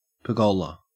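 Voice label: "pergola", Australian English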